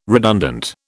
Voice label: "redundant", British